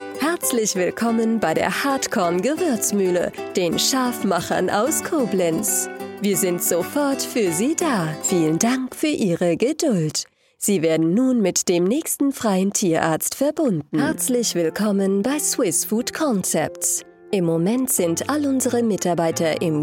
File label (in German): Deutsch Telefonansagen